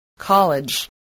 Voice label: "college", voice